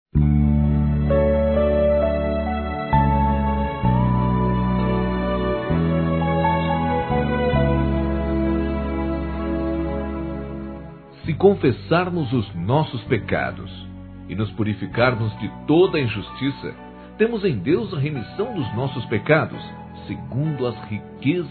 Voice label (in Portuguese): PERDÃO AMIGO M, Telemensagens Gospel masc